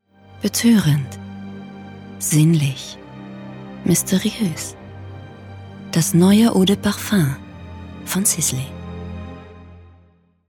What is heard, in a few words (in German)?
Spot; Sisley